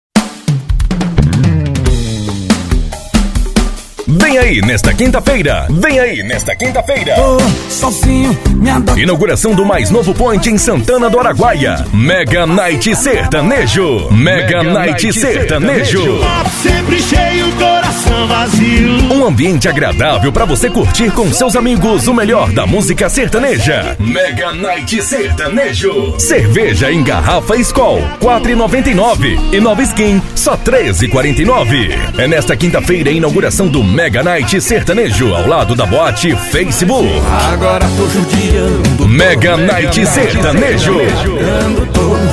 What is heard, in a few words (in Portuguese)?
chamada festa voz